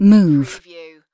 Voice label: "move", UK female; lynne publishing